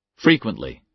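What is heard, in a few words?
"frequently", American English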